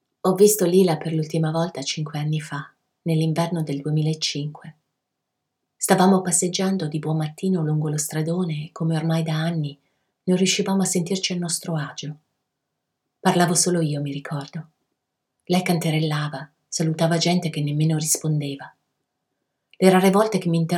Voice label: italian narration